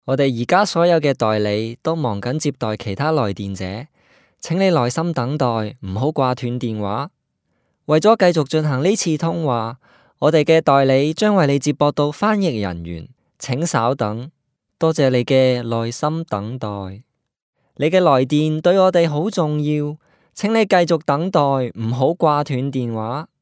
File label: male cantonese voice over talent narrative